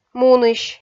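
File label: "мунысь", Komi, female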